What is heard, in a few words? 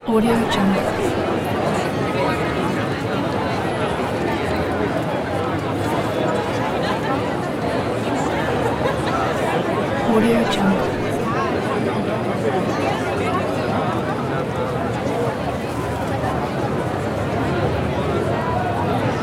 people talking outside